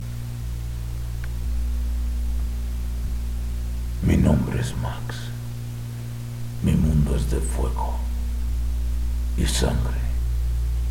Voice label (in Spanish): demo de voz fandub